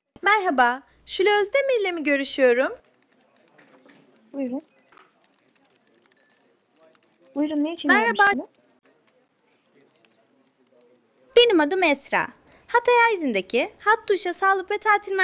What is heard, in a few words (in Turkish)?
Yapay Zeka Arama, Görüşme Kaydı; Buyurun. Buyurun. Ne için ermiştiniz?